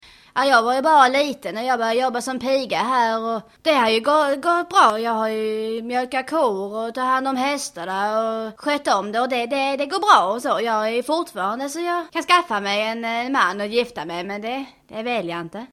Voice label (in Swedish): rostprov smalandska; dialekter